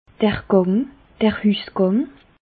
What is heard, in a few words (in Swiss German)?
"gang", alsace